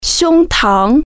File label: "chest", Chinese